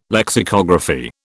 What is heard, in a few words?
"lexicography", British